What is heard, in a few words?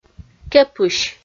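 "кепысь", Komi, female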